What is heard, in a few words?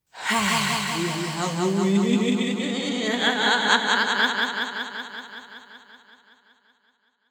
Happy Halloween Evil Voice